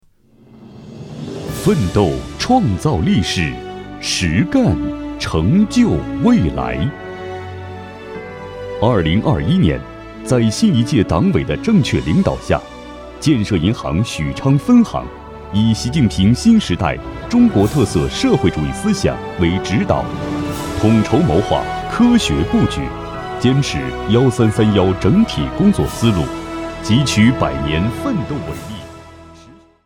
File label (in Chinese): 男 专题 建设银行